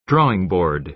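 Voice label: "drawing board" American English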